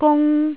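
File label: "gung", Cantonese, tone 1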